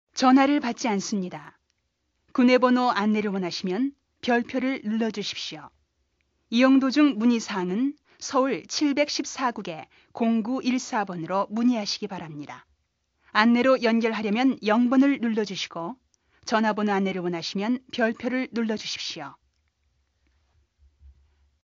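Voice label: Korean female; ARS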